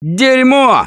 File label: reaction negative, russian; Gambler